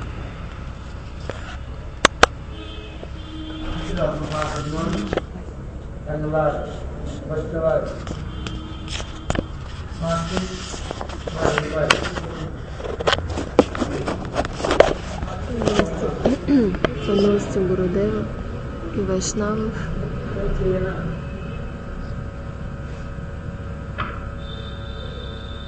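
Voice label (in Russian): утро медитация на анурага-бхакти; proslavlenie vradzha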